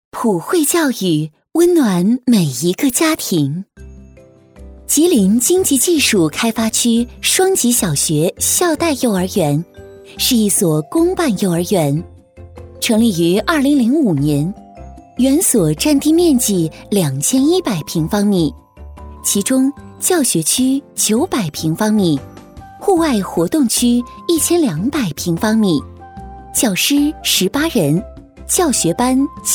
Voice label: 女 专题片 亲切温暖; 双语幼儿园

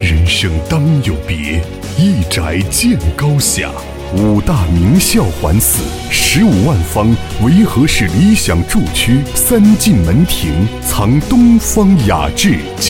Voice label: 男 高端房产广告; 东方名筑

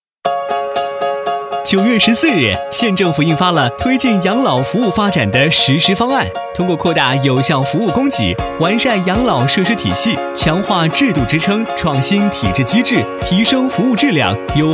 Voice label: 男,养老服务发展; 飞碟说

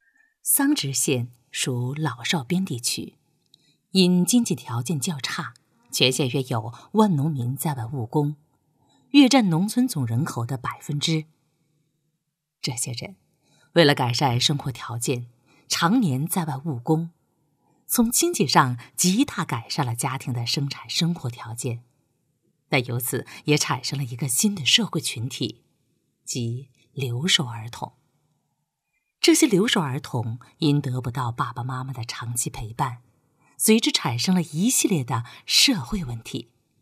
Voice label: 女 专题 磁性温婉 试音; 聚力留守试音

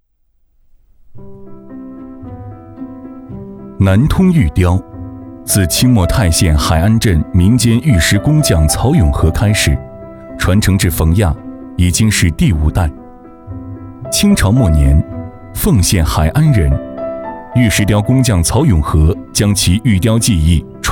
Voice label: 男 纪录片 玉雕

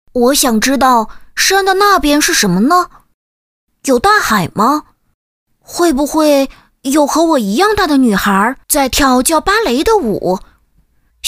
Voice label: 女-男童8岁和10岁

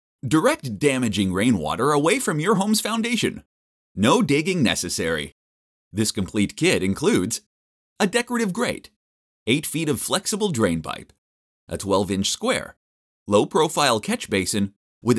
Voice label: 轻快干音

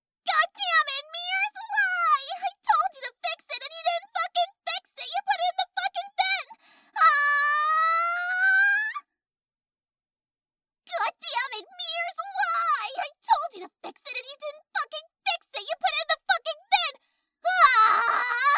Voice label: 外籍英语女, 角色生气

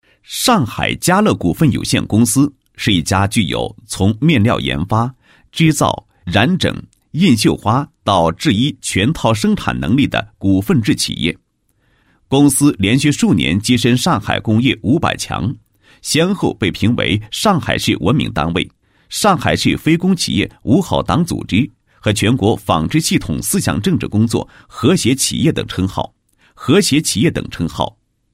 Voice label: 党建, 国语男声配音; 高音经济型; 嘉乐公司党建

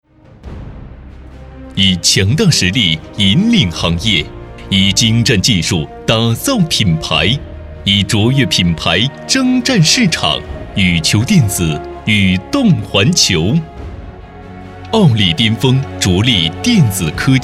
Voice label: 男, 企业宣传; 宇球电子

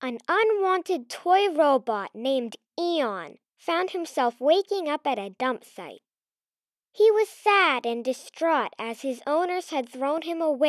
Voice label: 外籍童声女 童话故事

